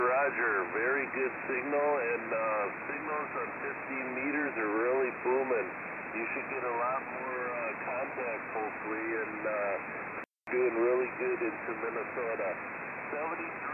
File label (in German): Amateurfunk USB